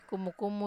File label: "kumu-kumu", Wamesa